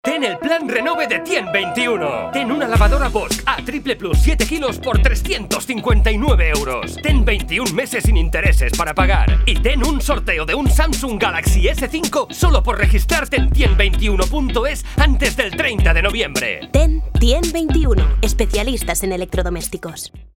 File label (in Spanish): cuña